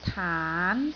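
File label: "taan2", Cantonese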